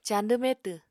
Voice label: "cañdw metw", Apatani